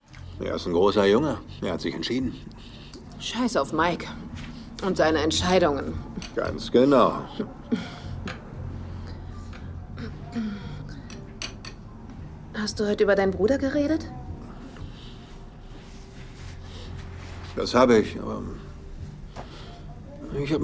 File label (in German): Synchron, maenner; Narcos Mexico